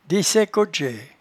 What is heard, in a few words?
"desaiccodgeaie", patois jura